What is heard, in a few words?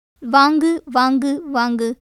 Tamil